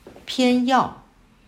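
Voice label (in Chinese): "偏要" Mandarin Chinese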